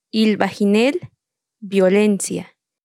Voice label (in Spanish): Palabras en tsotsil, Ilbajinel - Violencia